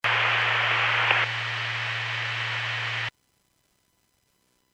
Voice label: Skywarn 146.67; Stevens Point